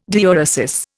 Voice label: "diuresis", Indian

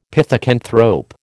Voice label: "pithecanthrope", American

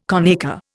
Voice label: "conacre", Indian

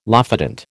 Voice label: "lophodont", American

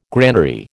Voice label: "granary", American

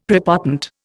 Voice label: "prepotent", Indian; Dictionary

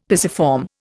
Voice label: "pisiform", Indian; Dictionary

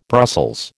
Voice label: "Brussels", American

